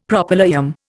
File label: "Propylaeum", Indian; Dictionary